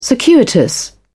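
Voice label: "circuitous", British English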